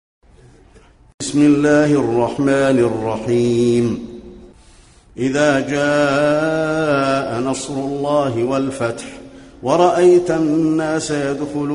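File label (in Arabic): النصر, تلاوة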